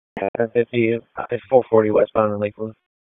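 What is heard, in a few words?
Police Southwest District Dispatch; Raleigh; p25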